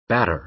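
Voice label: "batter", American English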